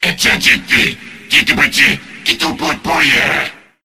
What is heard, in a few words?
crewman battlecry; tf; corpus